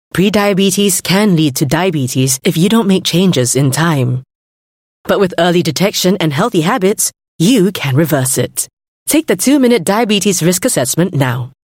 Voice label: Asian English; HPB Pre-Diabetes